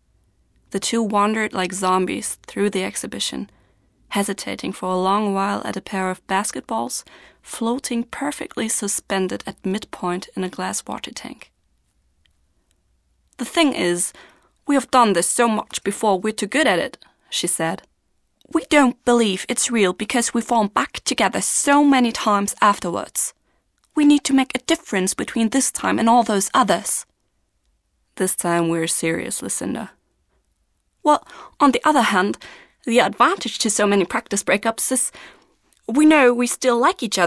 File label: Hörbuch english snippet; LOFT VOICES